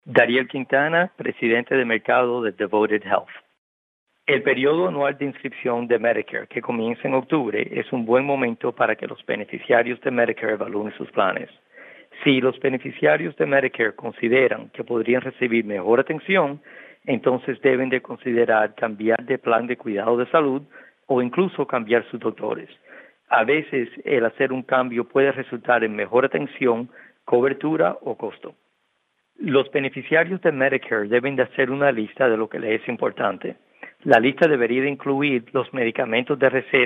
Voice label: Spanish